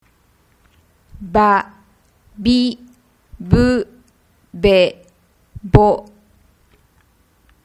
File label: babibubebo, Japanese